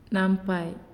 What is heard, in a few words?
"Walk", Naasioi